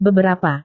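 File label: "beberapa", Indonesian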